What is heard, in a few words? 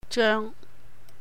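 "jèung", Cantonese; will be; be about to; 將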